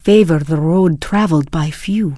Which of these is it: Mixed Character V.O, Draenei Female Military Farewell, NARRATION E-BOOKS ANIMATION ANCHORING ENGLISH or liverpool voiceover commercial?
Draenei Female Military Farewell